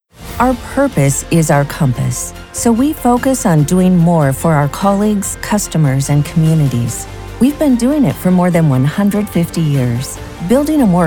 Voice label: corporate narration